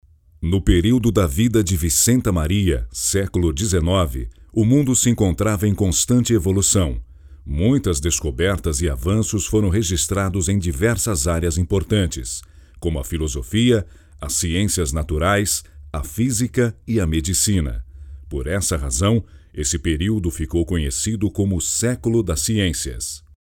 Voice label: portuguese brazilian narration

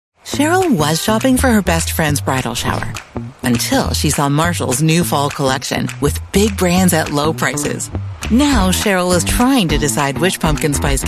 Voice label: english canadian commercial

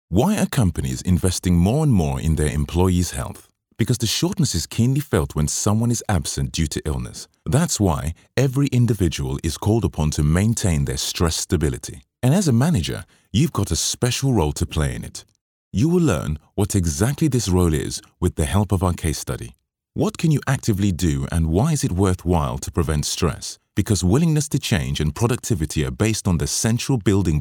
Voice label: english british corporate videos

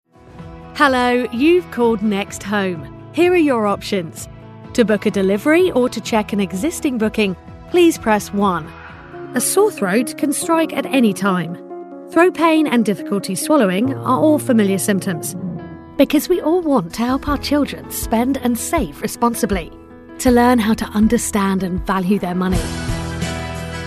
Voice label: english british corporate videos

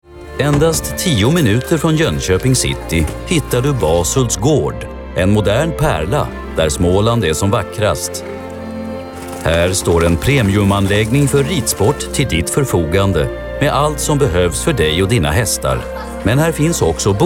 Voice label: swedish documentaries

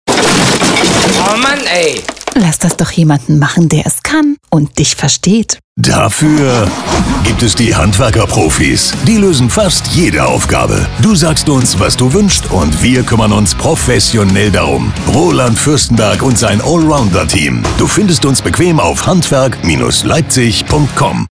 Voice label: german radio imaging, voice actors; doubleuse professionnelle allemande; Imagerie radio

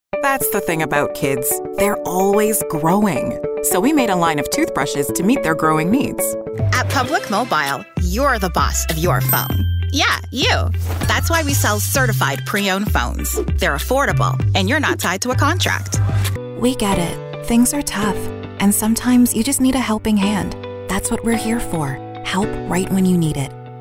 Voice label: English Canadian commercial, goofy

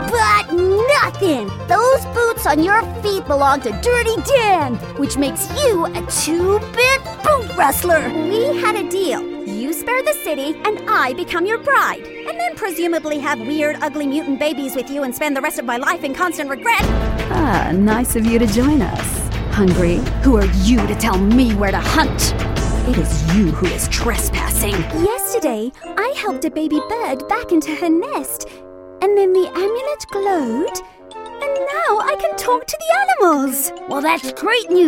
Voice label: English international animation

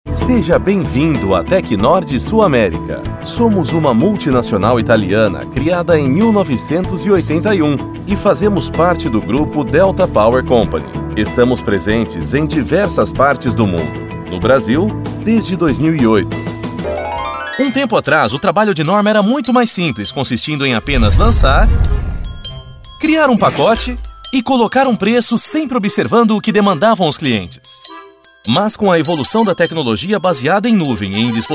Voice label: portuguese brazilian corporate videos

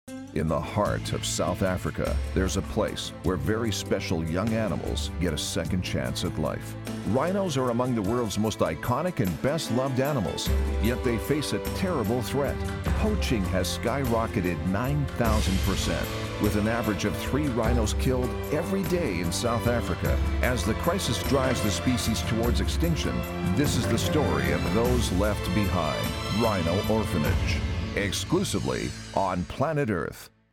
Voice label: english american audiobooks; Profundo e autoritário com clareza elegante e calor

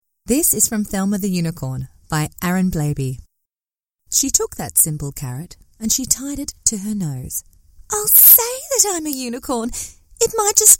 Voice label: english australian audiobooks; australische Sprecherin

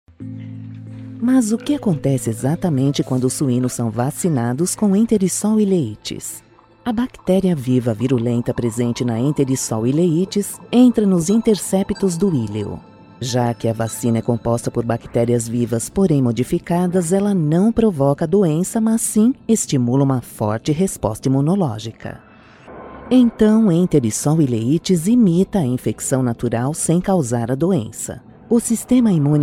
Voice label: portuguese brazilian documentaries

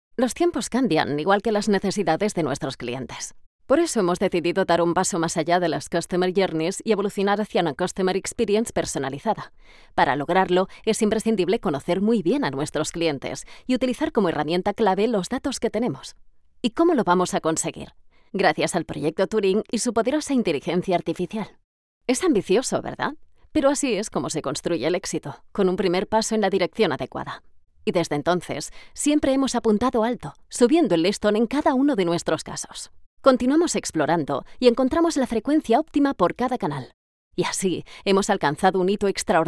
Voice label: spanish real estate; professional Spanish and Catalan voice actor

